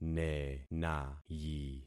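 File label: "nenayi", concatenated syllables; Affixing experiment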